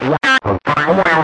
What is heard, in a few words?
radio voice, Distorted Radio Voice